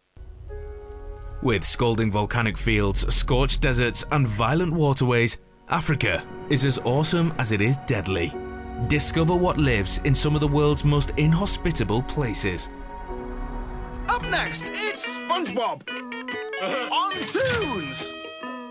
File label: continuity